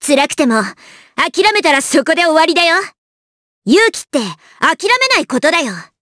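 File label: vox dialogue, voices, Japanese; kingsraid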